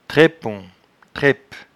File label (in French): "traippon", patois jura